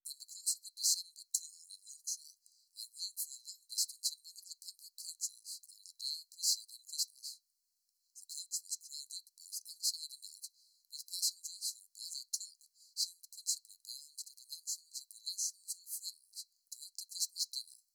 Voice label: speech above 4k